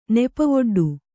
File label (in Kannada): "ನೆಪವೊಡ್ಡು", Kannada